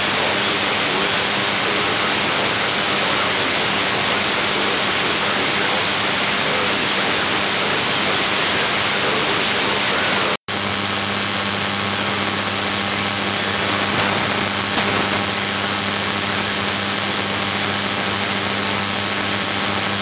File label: 270khz; Cesky Rozhlas